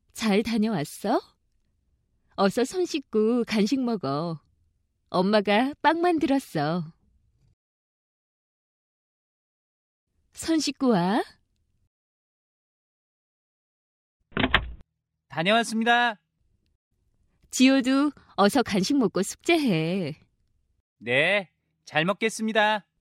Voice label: english